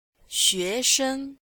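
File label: "xue2sheng1", Chinese, female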